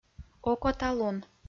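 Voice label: "окоталун", Komi, female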